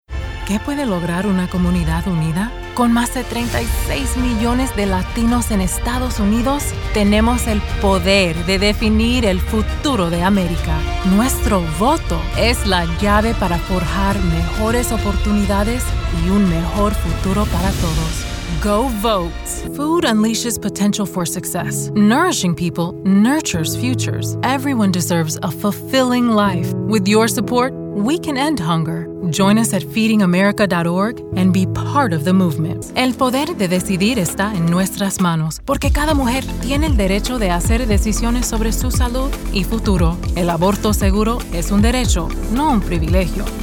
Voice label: Bilingual